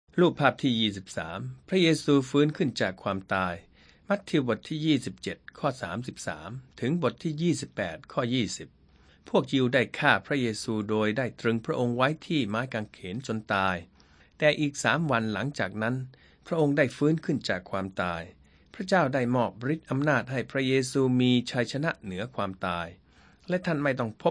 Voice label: thai central